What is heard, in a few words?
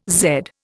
"zed", Indian